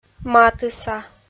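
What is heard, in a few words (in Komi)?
"матыса", Komi-Zyrian, female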